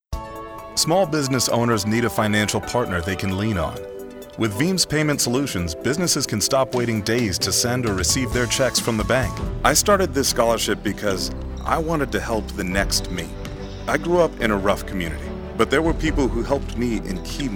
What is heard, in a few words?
Commercial